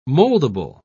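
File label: "moldable", British English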